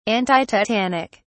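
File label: "antitetanic", British English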